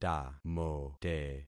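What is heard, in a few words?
"tamote", concatenated syllables; Affixing experiment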